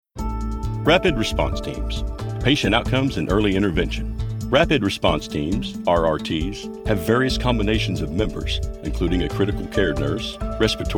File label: Medical E Learning